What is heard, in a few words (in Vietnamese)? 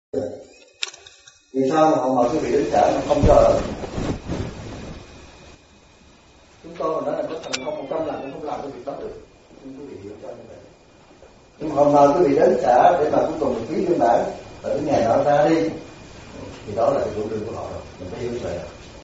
Tieng Noi Cua Thay